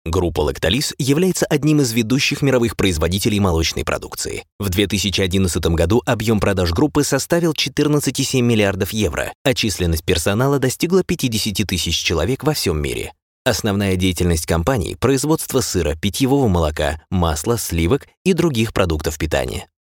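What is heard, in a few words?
solidn din Russian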